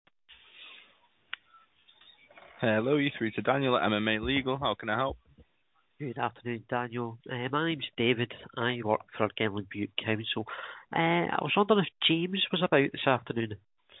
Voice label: call recording